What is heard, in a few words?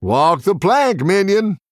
Male Human After Selling